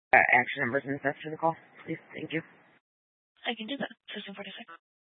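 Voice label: Dispatch, Scanner audio recording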